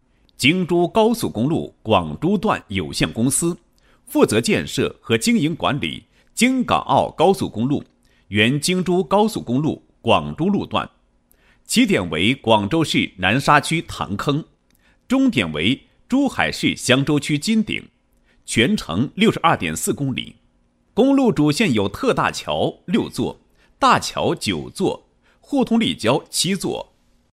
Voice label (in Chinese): 男; 京珠高速广珠段平安公路示范路创建工作配音